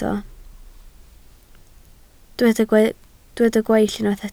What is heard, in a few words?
"gweill", Welsh